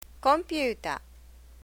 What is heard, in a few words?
"computer", Japanese